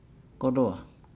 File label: "goloa", Nukuoro; groceries supplies